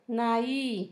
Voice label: celoso, Zapoteco, San Pedro Mártir; Adjetivos; INALI

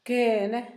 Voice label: "se pega", Zapoteco Asunción Ocotlan; INALI; Haspelmath

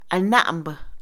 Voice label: Mixe, Mujer; capataz; INALI

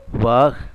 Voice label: "vaca", Zapoteco, Magdalena Ocotlan; Animales; INALI; Haspelmath

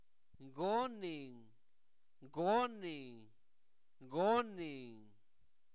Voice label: cuál, Zapoteco, santo domingo albarradas; INALI; preguntas

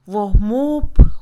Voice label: aullar, Mixe, Mujer; Habla; INALI

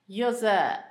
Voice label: istmo, Zapoteco, Asunción Ocotlan; Topónimos; INALI

